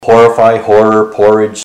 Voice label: horrify horror